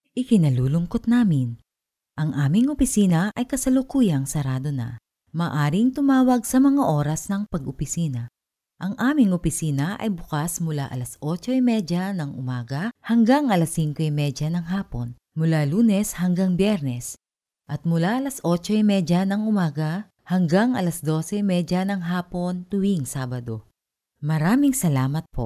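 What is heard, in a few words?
Tagalog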